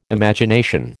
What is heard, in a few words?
"Imagination", American